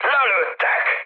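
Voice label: Hungarian aircraft destroyed mood high, speech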